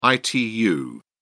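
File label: "itu", British English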